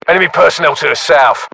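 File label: threat infantry south, British; english; uk; localized english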